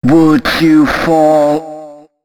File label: male d# 130; FX; Mutekki Media; Dance Ultra Voice VOL-1